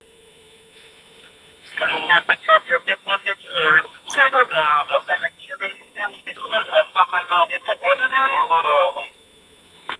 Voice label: president talking in publ

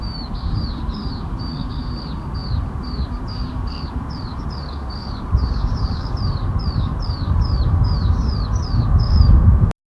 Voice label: generate a child friendly, narration

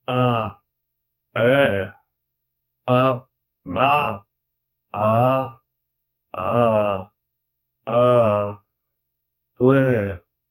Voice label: a friendly human voice; greetings

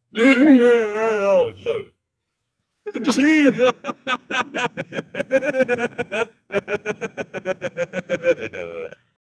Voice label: two middle aged mens cart, conversation

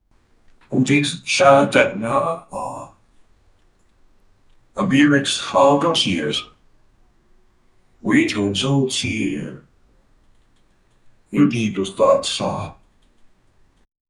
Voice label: a man says go to; household

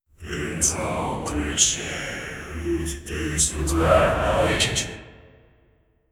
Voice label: the voice of a southern, ghost

